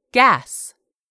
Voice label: "gas", English word; 3000 words

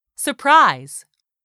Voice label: "SURPRISE", english; 3000 words

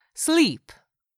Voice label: "SLEEP", english; 3000 words

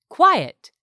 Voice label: "quiet", English; 3000 words

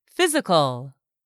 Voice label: "physical", English; 3000 words

